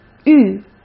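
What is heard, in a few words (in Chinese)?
"玉", Mandarin Chinese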